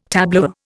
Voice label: "tableware", Indian